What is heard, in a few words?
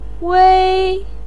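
"wei", Mandarin pinyin, first tone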